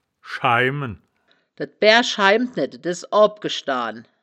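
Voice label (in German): "schaimen", wittlicher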